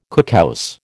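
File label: "cookhouse", American English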